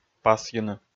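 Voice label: "пасйыны", Komi, male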